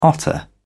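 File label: "otter", British English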